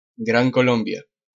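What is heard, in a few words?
"Gran Colombia", Spanish Peru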